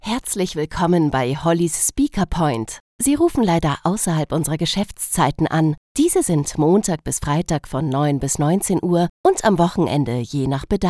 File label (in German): Telefonansage